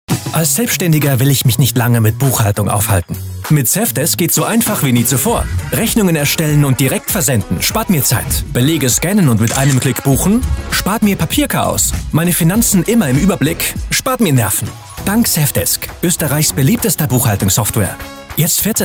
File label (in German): Werbung nahbar; SevDesk